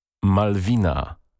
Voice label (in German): polnische maedchennamen malwina